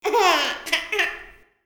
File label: Diner Voice; Levels Hub Diner